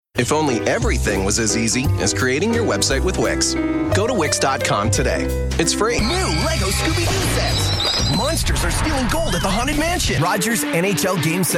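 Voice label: commercial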